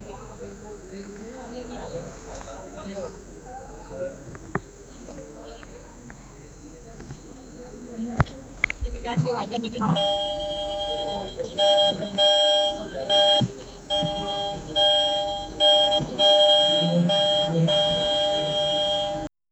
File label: intense sound of people, workplace